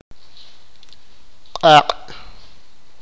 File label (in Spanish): "fuego", sipakapense